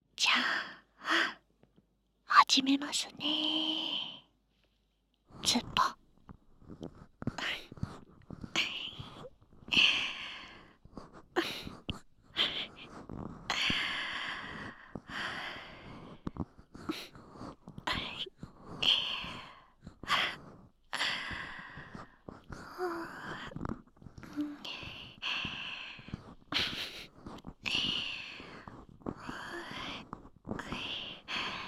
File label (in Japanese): 左カウントダウンあり, ASMR